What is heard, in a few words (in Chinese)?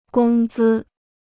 "工资", Chinese